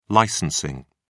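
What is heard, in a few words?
"licensing", British English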